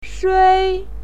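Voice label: "shui", Mandarin Chinese, first tone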